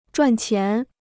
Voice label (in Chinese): "赚钱", Chinese